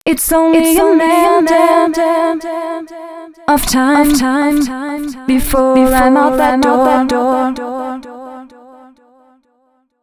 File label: female a 133, hooklines, Dance Ultra Voice; hooklines 131-135 BPM; Mutekki Media; Dance Ultra Voice VOL-1